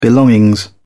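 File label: "belongings", British English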